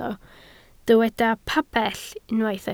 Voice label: "pabell", Welsh